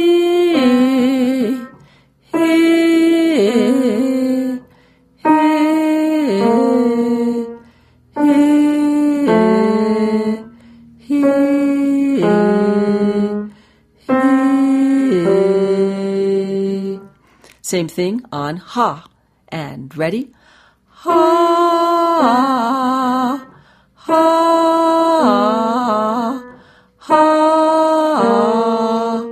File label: Voice Fem